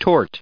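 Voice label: "tort", English, us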